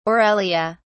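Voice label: "aurelia", British English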